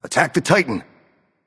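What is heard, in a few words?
vox attack, male; smite; susano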